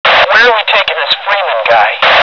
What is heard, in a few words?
chatter